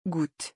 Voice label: "goutte", French; pronunciation; hard and soft vowels

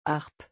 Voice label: "harpe", French; vocabulary; musical instruments

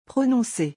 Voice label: "prononcer", French; pronunciation; hard and soft vowels

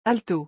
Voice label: "alto", French; vocabulary; musical instruments

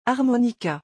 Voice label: "harmonica", French; vocabulary; musical instruments

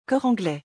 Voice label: "cor anglais", French; vocabulary; musical instruments

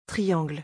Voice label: "triangle", French; vocabulary; musical instruments

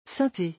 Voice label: "synthe", French; vocabulary; musical instruments

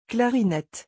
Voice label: "clarinette", French; vocabulary; musical instruments

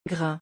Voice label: "grain", French; pronunciation; hard and soft vowels

